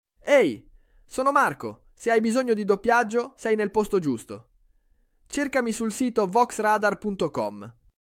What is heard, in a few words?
italian voiceover artist